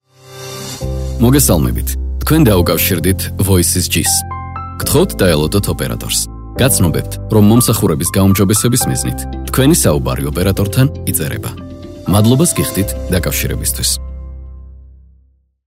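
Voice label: Georgian, male, IVR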